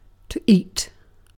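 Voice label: "eat", British English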